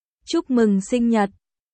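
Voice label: Vietnamese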